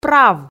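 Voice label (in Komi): "прав" Komi, female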